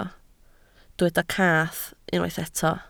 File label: "cath", Welsh